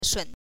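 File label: "shun", Mandarin Chinese, neutral tone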